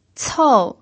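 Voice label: "cou" fourth tone, Mandarin Chinese pinyin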